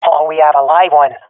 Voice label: sees one enemy, swat fem; vo; Counter Strike Model Pack; CS:GO

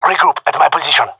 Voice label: request regroup, gendermerie male

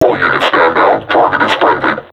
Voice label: taunt, vo combine suppressor; Half Life Alyx

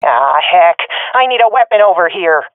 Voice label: request weapon, swat fem; vo; Counter Strike Model Pack; CS:GO

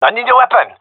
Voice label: request weapon, male; vo

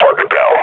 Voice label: announce kill, combine grunt

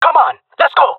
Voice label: request move, jungle male; vo; agents; Source Model Packs; Counter Strike Model Pack; CS2

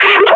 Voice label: injured, grunt; Half Life Model Pack

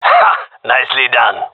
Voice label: compliment, gendarmerie male; vo

